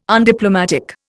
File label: "undiplomatic", Indian